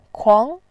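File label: "kuang2", Mandarin Chinese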